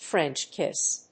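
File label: "French kiss", English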